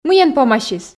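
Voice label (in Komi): мыйӧн помасис, Komi, female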